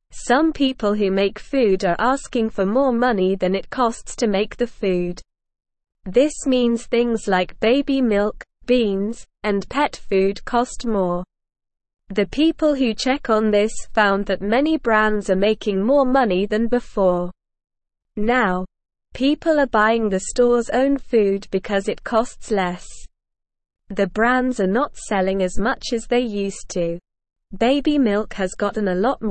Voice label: English Newsroom Beginner SLOW Reading; Food Prices Rise as Brands Make More Money

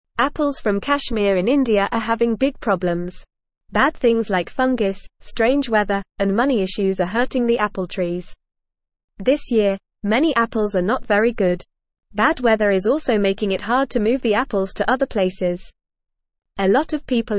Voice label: English Newsroom Lower Intermediate FAST Reading; Troubles for Apples in Kashmir Bad Weather Money Wo

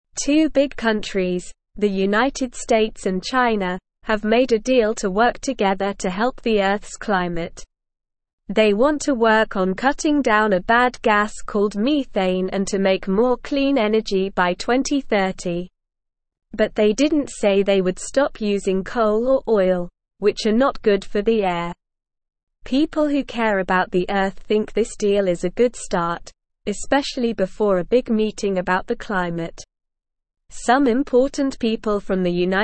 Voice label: English Lower Intermediate SLOW Reading; America and China Join Forces to Help the Earth; English Newsroom

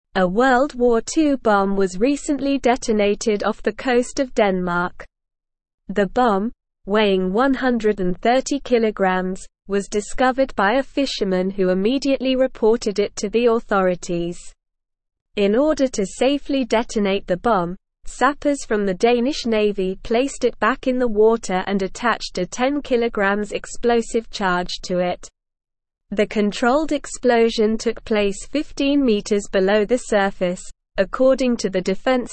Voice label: English Newsroom Advanced SLOW Reading; World War Two bomb detonated off Danish coast

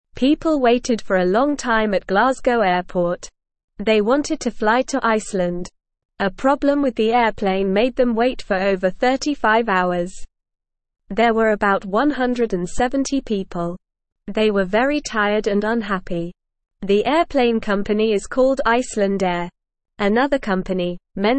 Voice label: English Newsroom Beginner NORMAL Reading; Long Wait for Iceland Flight

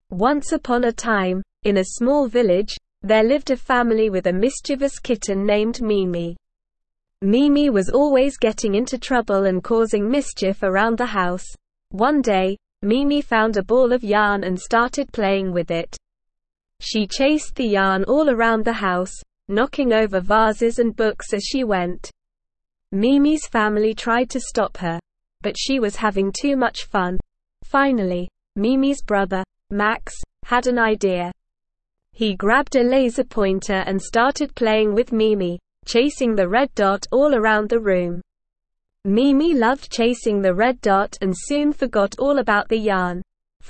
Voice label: ESL Short Stories for Kids SLOW reading; The Mischievous Kitten

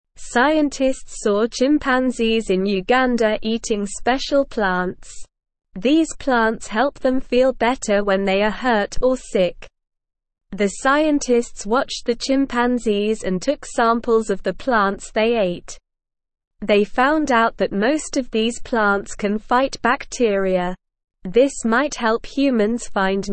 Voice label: English SLOW Reading; Chimpanzees in Uganda eat special plants for medicine

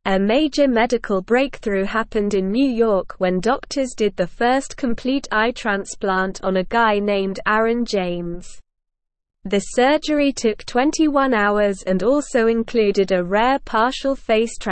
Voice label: English Newsroom Upper Intermediate SLOW Reading; Worlds First Complete Eye Transplant Marks Medical

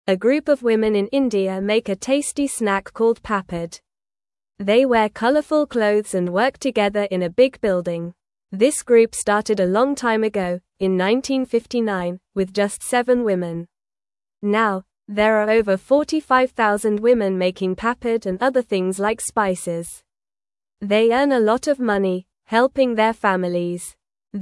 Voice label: English Beginner NORMAL Reading; English Newsroom; Women in India Make Tasty Papad Together

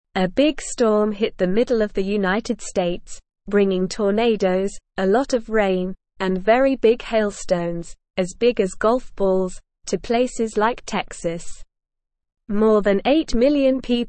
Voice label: English SLOW Reading; Big Storm Causes Damage and Danger in United States

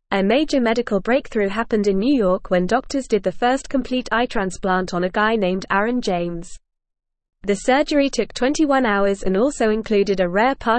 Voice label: English Upper Intermediate FAST Reading; Worlds First Complete Eye Transplant Marks Medical; English Newsroom

